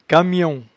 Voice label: "camion", patois jura

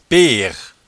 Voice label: "peremale", patois jura

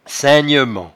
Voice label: "saingnement", patois jura

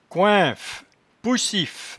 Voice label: "coinffe", patois jura

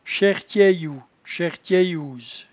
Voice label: "chairtcheyou", patois jura

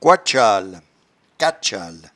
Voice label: "coitchale", patois jura

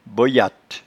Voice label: "boyatte", patois jura

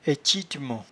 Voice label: "aitchiment", patois jura

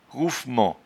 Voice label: "rouffement", patois jura

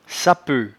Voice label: "sapeut", patois jura

